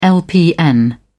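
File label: "lpn", British English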